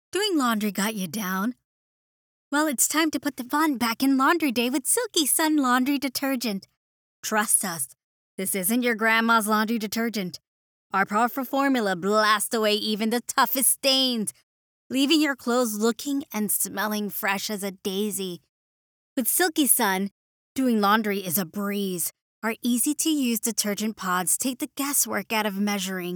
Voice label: Television Ad Attention grabbing and Humourous no fx